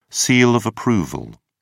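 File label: "seal of approval", English, British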